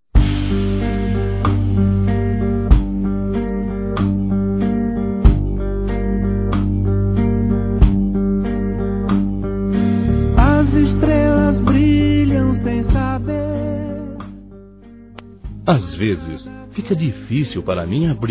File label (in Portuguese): ROMANTICO M; Aniversários; masc